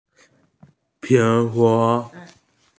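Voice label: "apple", Mandarin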